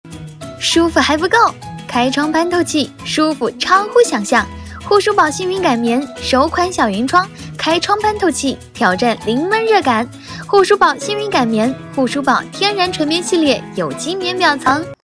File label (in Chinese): 商业配音; 护舒宝